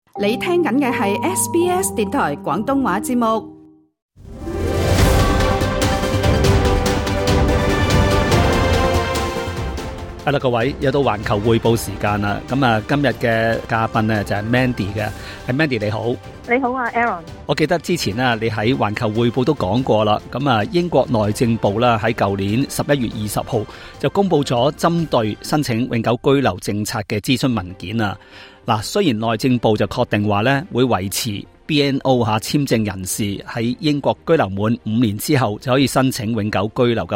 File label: cantonese; 【環球薈報】英國移民政策諮詢期倒數 港人社群積極發聲; 《Restoring Control over the Immigration System》移民白皮書 (Immigration White Paper); SBS Chinese